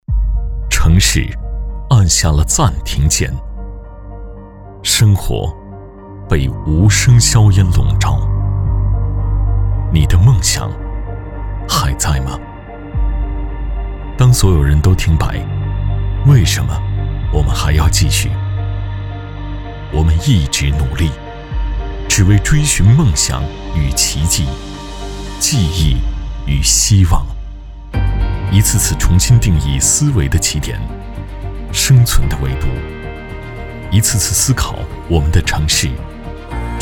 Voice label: 男 企业 品牌形象宣传片; 走心企业形象片样音; 玺果

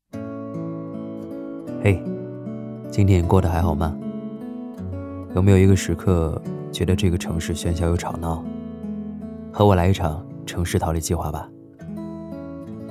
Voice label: 男 素人广告; 素人风格男声; 城市逃离计划

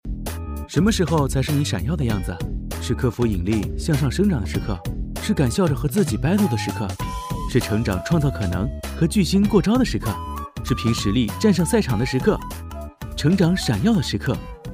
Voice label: 男 年轻素人; 素人风格男声; 钙尔奇

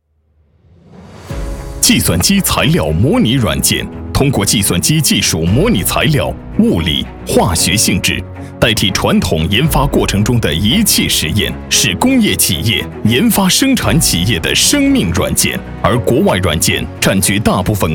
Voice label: 男国 科技 计算机材料模拟软件

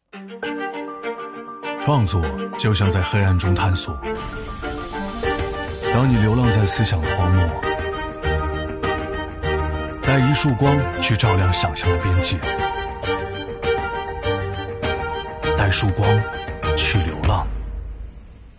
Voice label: 男国 汽车, 汽车tvc广告; 红旗HS7; 品质走心tvc; guanggaopeiyin

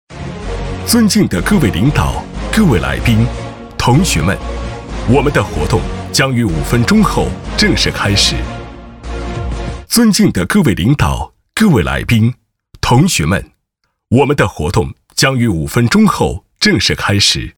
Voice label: 男 活动提示画外音; 男声广播提示

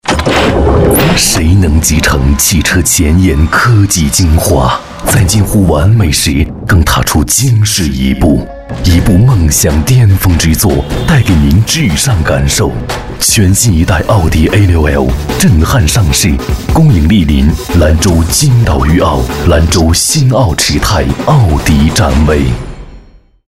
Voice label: 男国 广告; 奥迪

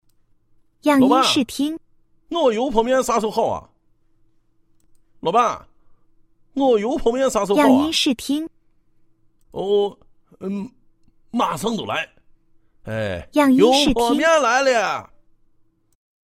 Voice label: 陕西方言 男 陕西话; 方言配音; 老板

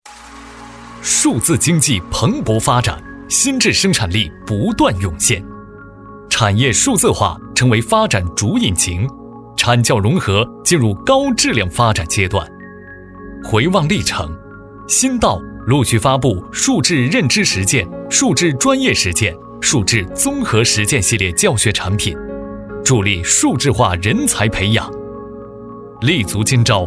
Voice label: 男 大音 科技 校长论坛产品发布

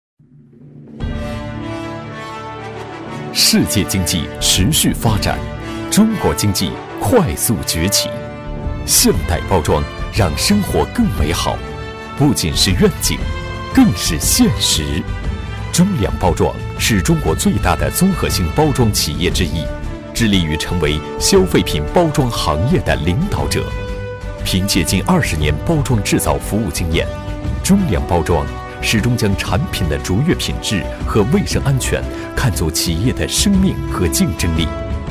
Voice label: 男国 企业宣传片; 中粮集团

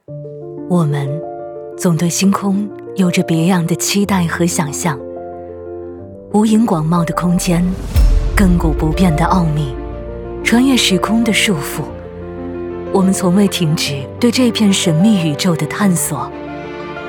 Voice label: 女 形象片; 走心企业形象片样音; 金凯德

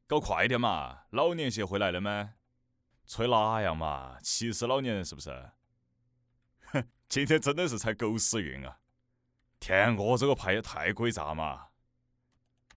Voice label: 贵州方言 男 游戏语音; 方言配音

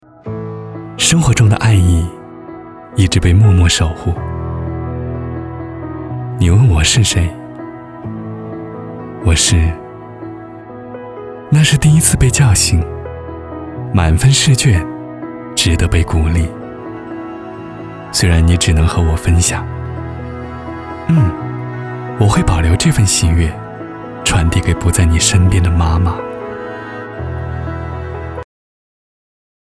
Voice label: 男 家电; 《生活的独白》

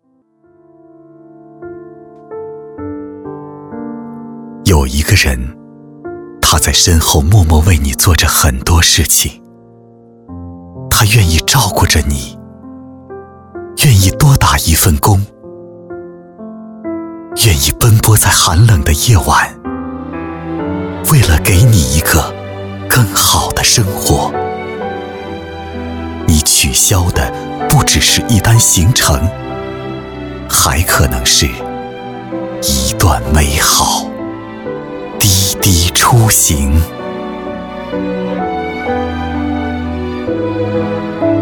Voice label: 男 国 大音; 微电影--滴滴出行不同音乐成品

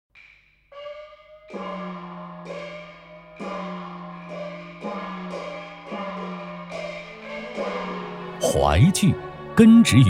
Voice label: 男 国 大音; 非遗-淮剧; jilupian

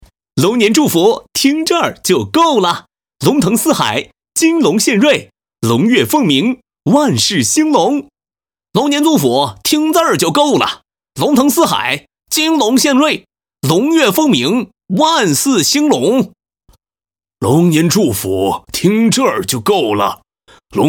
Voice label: 东北方言 男 东北话 祝福语汇总; 方言配音

